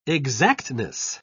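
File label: "exactness", British English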